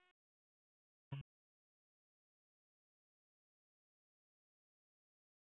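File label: Sheriff's Office Dispatch; WSO DISP 1; wake; p25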